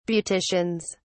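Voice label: "beauticians", British English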